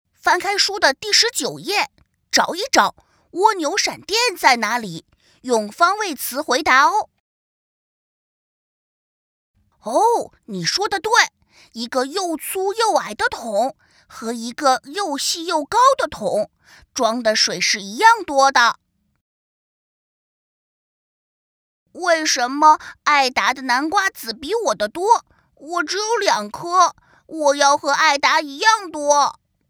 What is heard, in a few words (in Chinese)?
童男 男童 解说感